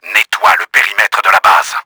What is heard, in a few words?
CLEAR B, french; Battle Engine Aquila; XBOX